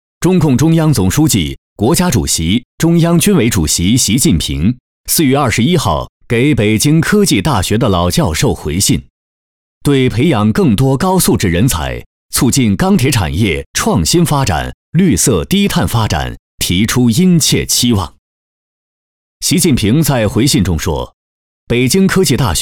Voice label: 老教授

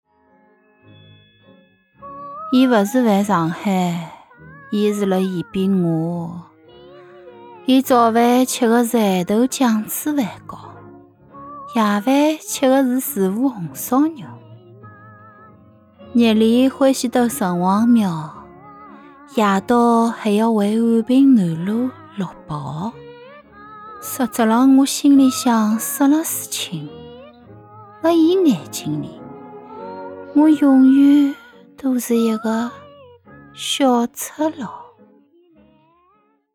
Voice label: 沪 情感独白 怨妇

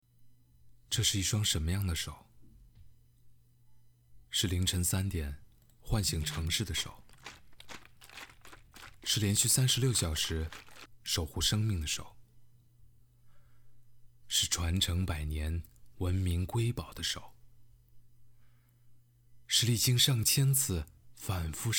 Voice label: 公益广告

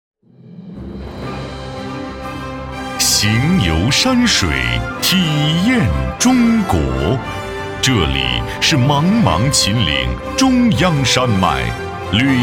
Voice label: 磁性

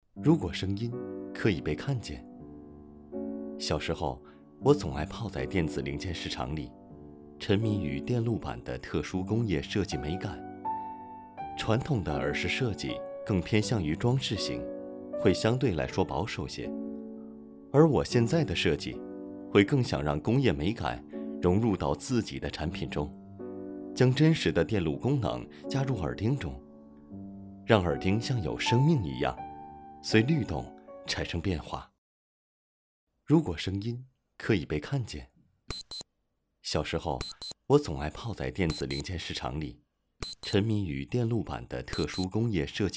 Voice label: 旁白 严肃; 恒星

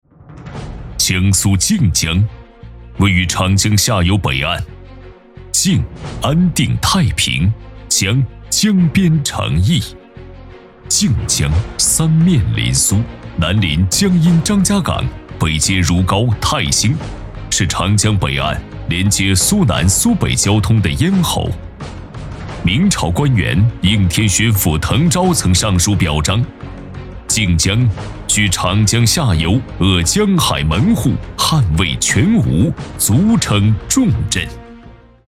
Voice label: 专题汇报类; 江苏人武部评比稿件

